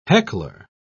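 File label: "heckler", British English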